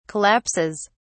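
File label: "collapses", British English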